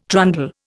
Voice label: "trundle", Indian